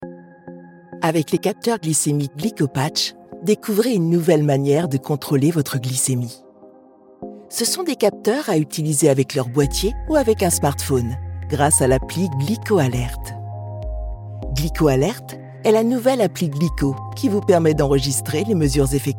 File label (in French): e-learning, voix off; Posée; Guidant, rassurante; Démos de voix off féminine; Glycopatch – installation de l'application